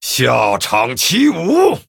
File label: Male Night Elf Attack; zh